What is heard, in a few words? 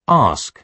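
"ask", British English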